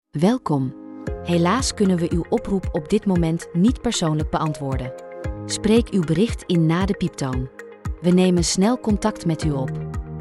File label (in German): online ansage; Moderne voicemail voor digitale telefoonsystemen